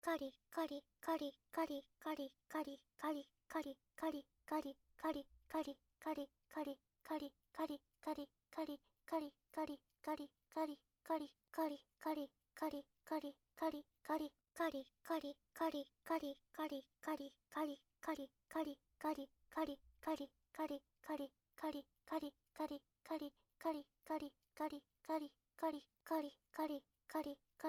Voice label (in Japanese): かりかりボイス 普通; 汎用エロボイス; おまけ音声（汎用指示ボイス・汎用エロボイス）